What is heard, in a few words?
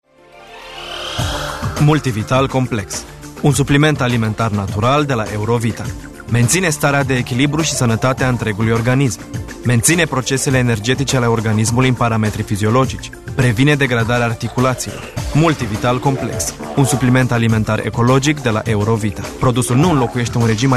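Romanian, male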